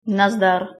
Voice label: goodbye, czech; Nazdar